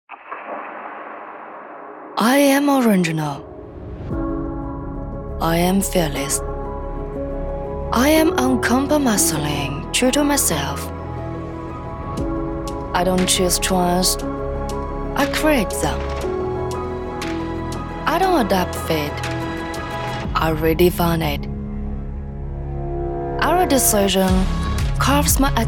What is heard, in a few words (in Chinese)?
女 英文 广告